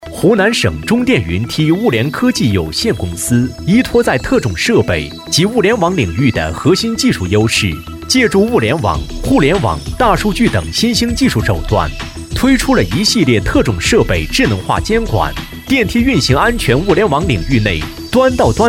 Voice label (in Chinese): 男, 专题, 企业专题, 云梯; 湖南中电云梯